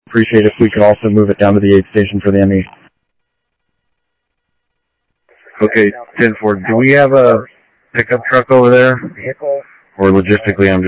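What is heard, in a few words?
Sheriff Lake Patrol, scanner audio trunk; MCSO Lakes 1 SheriffLakePatro; ThompsonPeakScot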